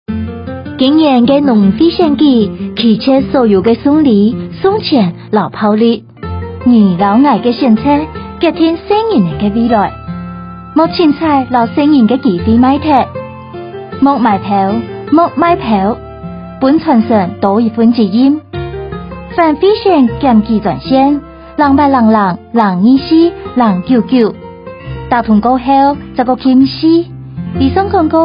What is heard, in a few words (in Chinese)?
反賄選宣導 30秒廣播帶 客語版; 110年度全國基層農漁會選舉法務部反賄選宣導